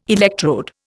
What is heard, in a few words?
"electrode", Indian